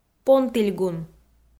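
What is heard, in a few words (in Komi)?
"понтільгун", Komi, female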